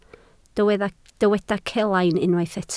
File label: "celain", Welsh